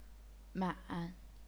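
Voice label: "man", third tone, chinese pinyin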